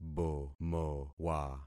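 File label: "bomowa", concatenated syllables; Affixing experiment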